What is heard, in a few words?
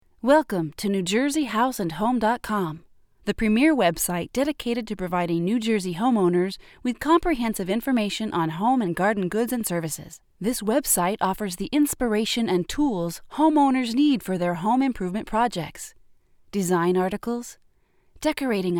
voiceovers